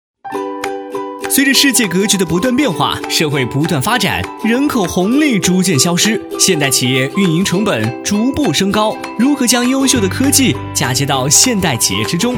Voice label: 男 飞碟说 RPA虚拟员工

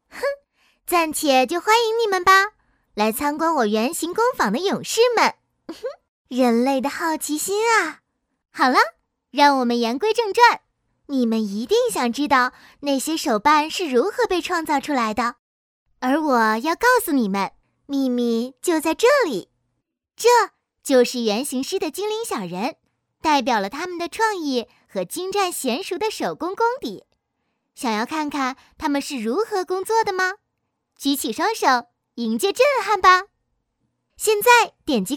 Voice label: 女 其它 广告 活泼少女 手办解说

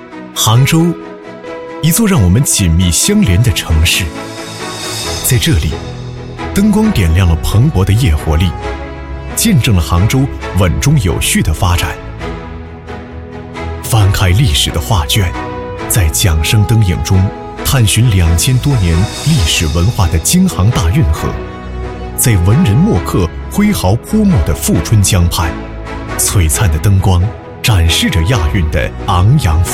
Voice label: 男,城市宣; 杭州

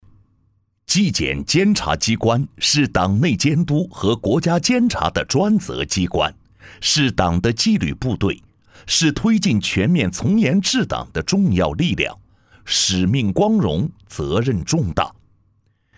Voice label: 男 警示教育类 零容忍

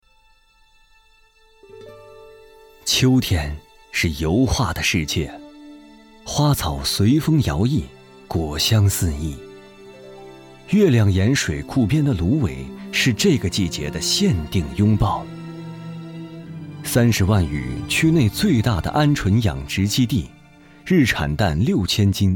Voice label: 男 专题 大气 抒情 地方文化宣传片 清新小镇

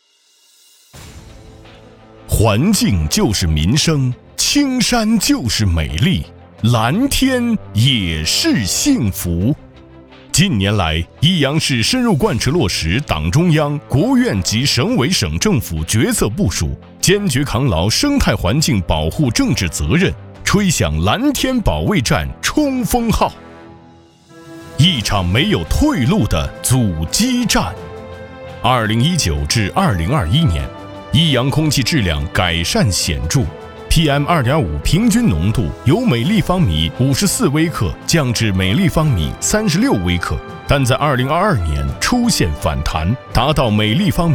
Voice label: 男, 专题, 生态环境警示

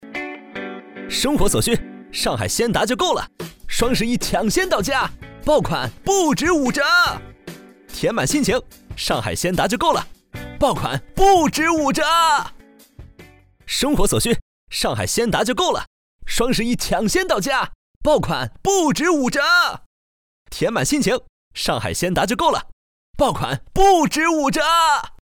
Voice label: 男, 广告, 年轻海鲜; 京东年轻海鲜达

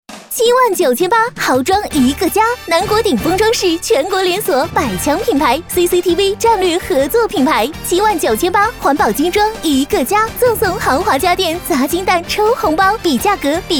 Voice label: 女 激情广告; 南国鼎峰装饰

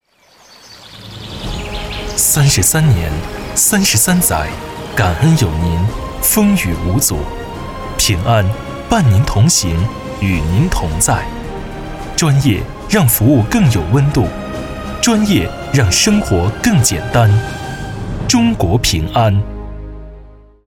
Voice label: 男 中文 广告大气品质

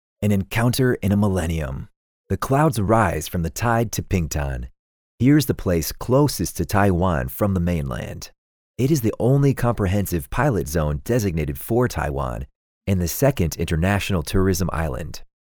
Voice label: 英 试音 语速快点点; 逐梦平潭文字稿试音2

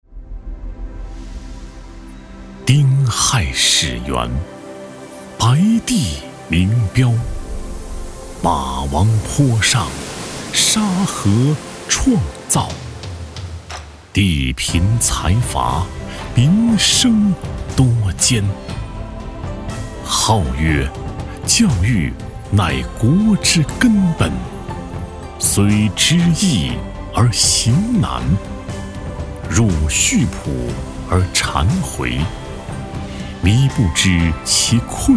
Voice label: 男 诗歌朗诵; 恒梦赋

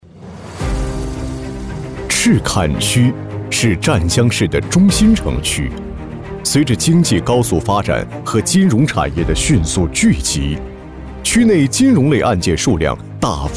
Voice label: 男 政府专题 金融审判更智慧 法院; 赤坎区法院

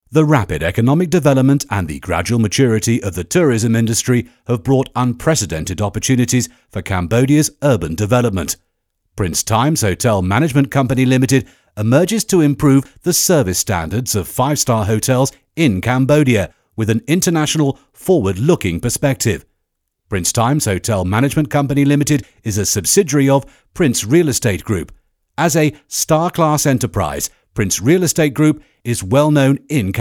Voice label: 酒店宣传片解说词; LID

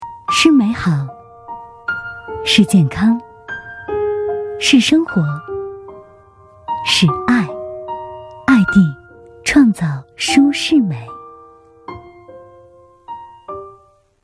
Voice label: 女 广告类; CCTV8-爱帝广告

